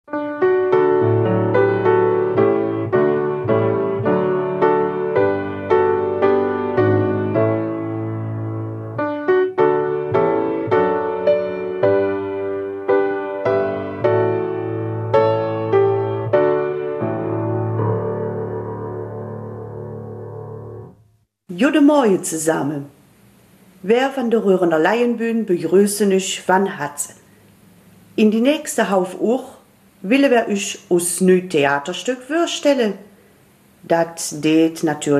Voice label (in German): Raerener Mundart; Leonards letzter Wille und Karnevalsdichtung